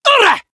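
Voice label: attack, Japanese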